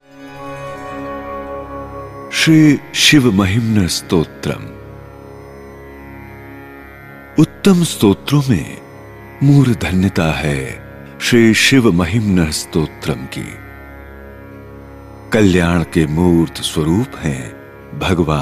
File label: spritual hin